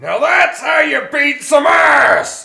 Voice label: Win, Tough Guy Voice; blackjack